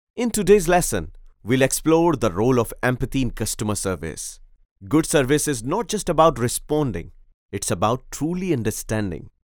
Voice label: English E-learning, Training